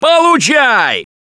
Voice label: Battle Cry; Gambler